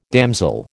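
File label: "damsel", American English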